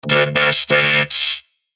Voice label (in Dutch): RoboVoice De beste hits